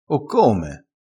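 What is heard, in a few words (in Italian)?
"o come", dialettale crespinese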